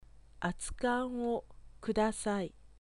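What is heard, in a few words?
Japanese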